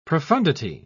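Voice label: "profoundity", British English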